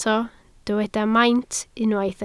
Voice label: "maint", Welsh

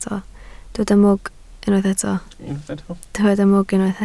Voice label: "mwg", Welsh

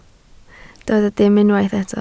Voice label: "dim", Welsh

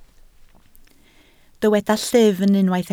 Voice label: "llyfn", Welsh

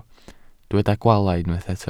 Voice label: "gwaalau", welsh

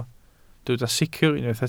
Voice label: "sicr", Welsh

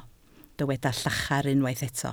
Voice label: "llachar", Welsh

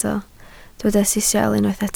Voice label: "sisial", Welsh

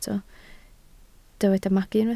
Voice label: "magu", Welsh

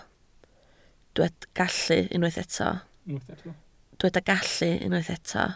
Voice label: "gallu", Welsh

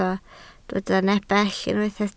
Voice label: "nepell", Welsh

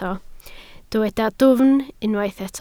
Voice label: "dwfn", Welsh

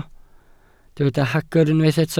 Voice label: "hagr", Welsh

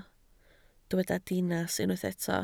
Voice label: "dinas", Welsh

